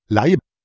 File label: "laib", Proto-Germanic